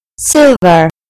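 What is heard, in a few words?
"silver", British English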